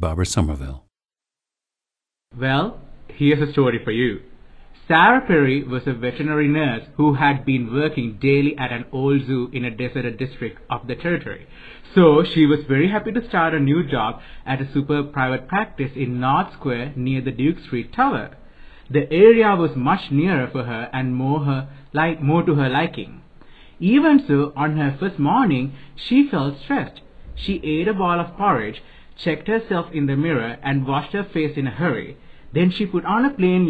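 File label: sri lanka, dialects of English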